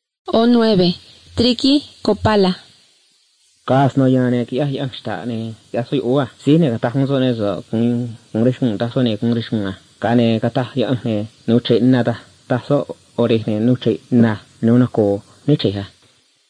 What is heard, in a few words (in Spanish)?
spanish mexico